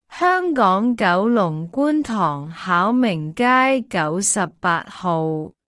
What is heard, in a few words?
address cantonese